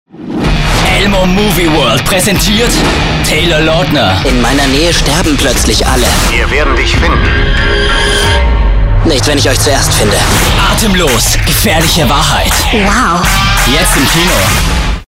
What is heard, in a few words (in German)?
werbung, deu